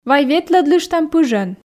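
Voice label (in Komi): вай ветлӧдлыштам пыжӧн, Komi, female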